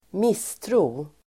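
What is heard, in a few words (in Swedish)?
"misstro", Swedish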